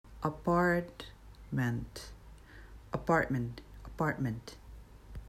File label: "apartment", English